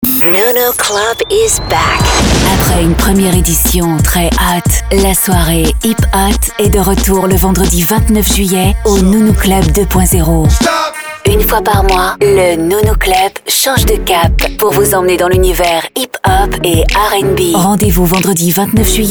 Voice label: commercial; nunu club fr